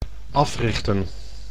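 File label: "africhten", Dutch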